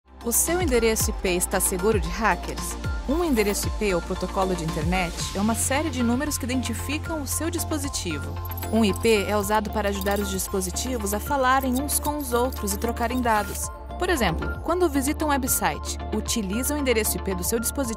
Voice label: corporate presentation real person informative positive female; brazilian; kaspersky